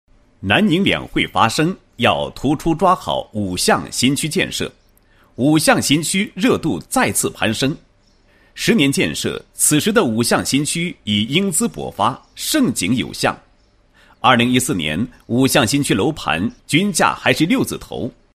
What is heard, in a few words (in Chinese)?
男-房地产广告; 房地产 五象新区